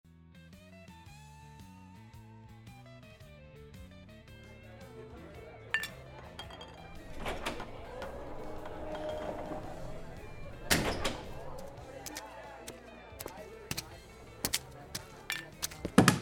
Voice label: Energy Drink Ad